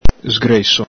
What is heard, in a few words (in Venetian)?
"sgreso", Veneto